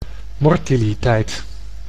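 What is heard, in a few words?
"mortiliteit", Dutch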